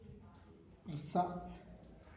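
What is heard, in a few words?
pluck, Santiago Matatlán Zapotec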